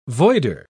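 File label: "voider", British English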